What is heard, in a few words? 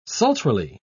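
"sultrily", British English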